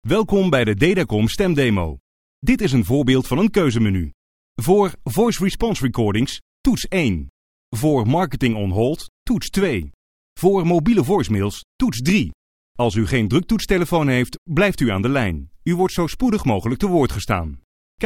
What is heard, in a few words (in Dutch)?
Voice over Nederlandse man